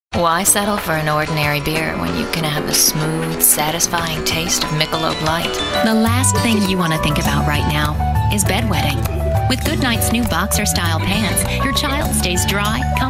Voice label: commercial voiceover